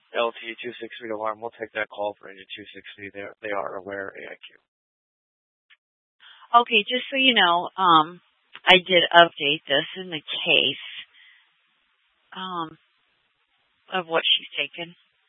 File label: Fire Departments, scanner